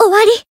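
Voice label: Voice finish, Japanese; saki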